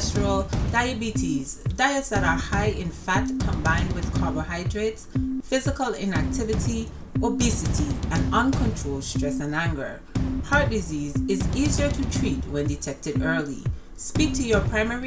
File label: cardiovascular education commercial